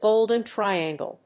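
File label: "golden triangle", English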